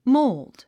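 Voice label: "mold", English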